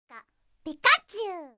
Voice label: voice cute; pikachu